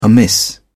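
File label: "amiss", English, British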